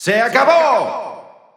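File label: narration Game set Spanish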